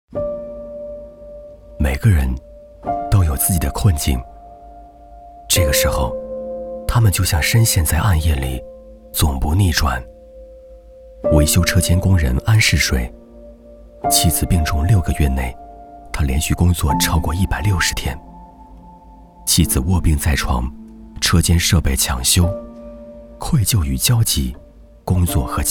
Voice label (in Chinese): 男 旁白 走心 人物; 西来峰